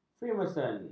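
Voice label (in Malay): "freemason", Malay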